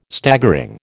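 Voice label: "Staggering", American English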